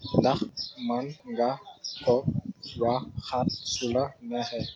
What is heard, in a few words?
sayagain, wolof; Ndax mën nga ko waxaat su la neexee?